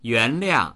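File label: "yuan2liang4", Mandarin Chinese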